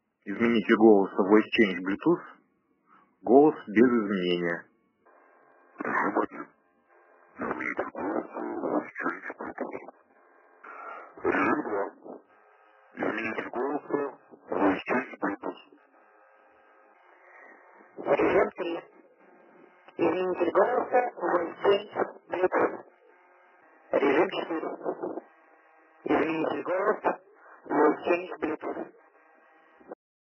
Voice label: male